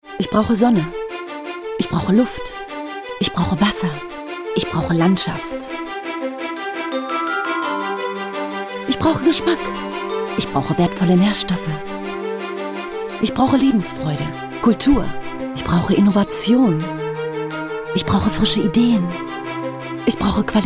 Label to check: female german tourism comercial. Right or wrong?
Right